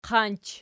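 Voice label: "qanch" nominative, Archi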